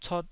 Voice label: "cot2", taiwanese